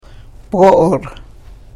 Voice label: "poora", karuk